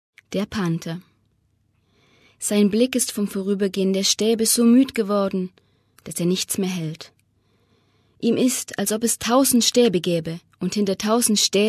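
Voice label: German, female, explainer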